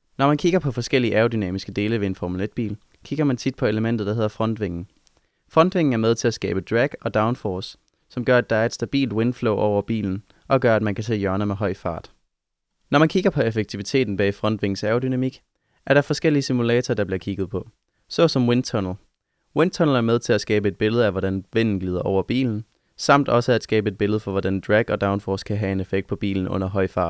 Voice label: male danish voice over narrative

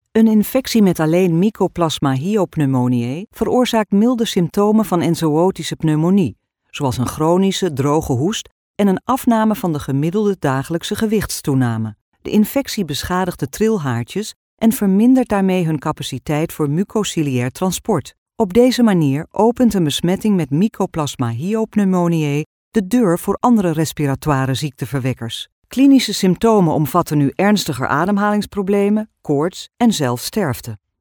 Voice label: female dutch voice over narrative

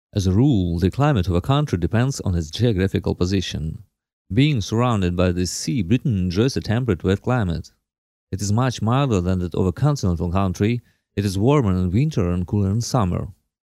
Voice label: male russian voice over talent english

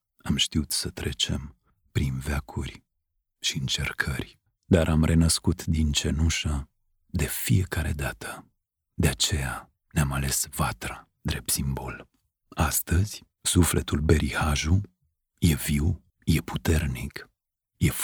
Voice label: male romanian voice over narrative

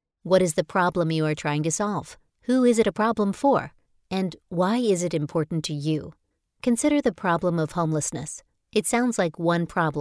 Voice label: female american voice over narrative; english american

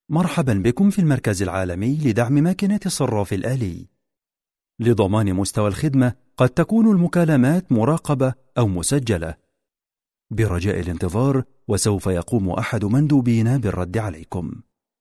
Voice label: male arabic voice over upbeat

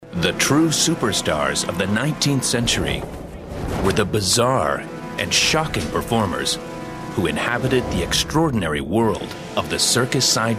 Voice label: male canadian voice over narrative

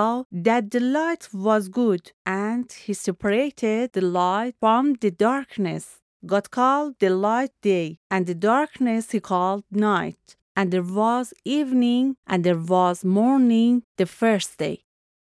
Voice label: female farsi voice over english

